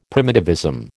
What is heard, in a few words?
"primitivism", American